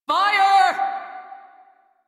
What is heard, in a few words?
Crew See Fire, Female; Dialog; en; Warframe; Railjack Crew; New Loka